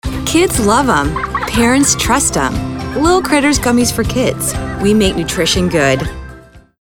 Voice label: Motherly; Lil-Critters